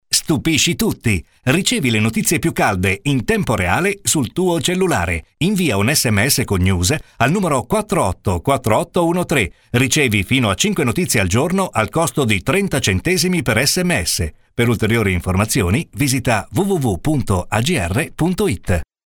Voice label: spot sms; Agrnews